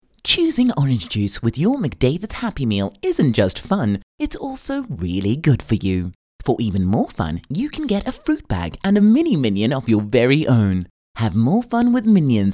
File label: English Bright; OSM Talent